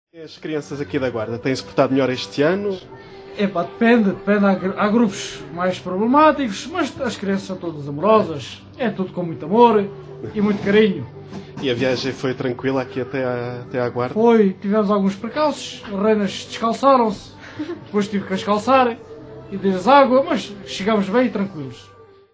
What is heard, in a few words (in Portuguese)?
entrevista ao pai natal